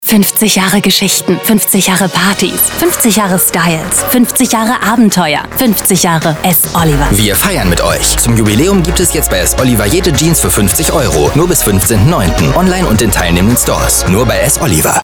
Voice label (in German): Werbung; S.Oliver